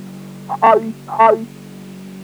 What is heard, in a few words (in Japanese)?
"hai hai", speech